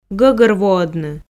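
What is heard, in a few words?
"гӧгӧрвоӧдны", Komi, female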